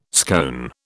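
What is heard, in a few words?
"scone", British English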